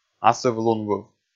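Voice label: "асыв-лунвыв", Komi, male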